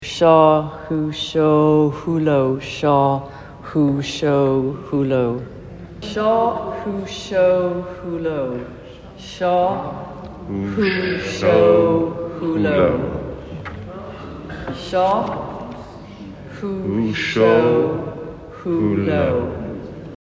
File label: Suantrai choir pronunciation